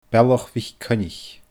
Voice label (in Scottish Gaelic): "Bealach MhicCoinnich", Scottish Gaelic